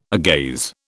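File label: "agaze", British English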